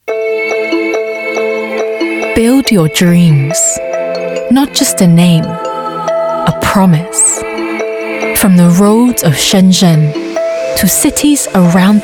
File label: commercial, Chinese accent; BYD